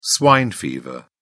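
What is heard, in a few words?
"swine fever", British English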